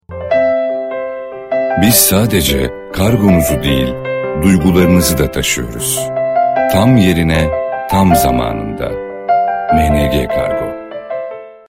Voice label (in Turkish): reklam otoriter; mng